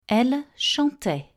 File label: "ils chantaient" French; nasal